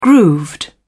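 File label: "grooved", British English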